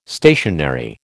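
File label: "stationary", American English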